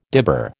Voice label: "dibber", American

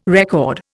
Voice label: "record", Indian

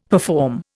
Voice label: "perform", Indian; Dictionary

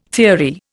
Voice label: "theory", Indian

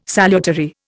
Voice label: "salutary", Indian

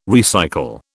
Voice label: "recycle", British

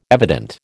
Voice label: "evident", American

